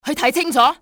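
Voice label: check that out, cantonese female; XBOX; enemy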